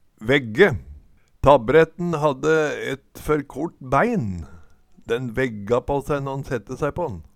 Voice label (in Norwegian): vegge, Numedalsmål